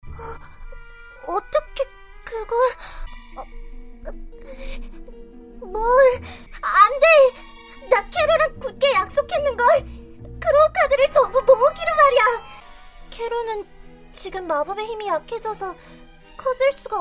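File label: Korean, female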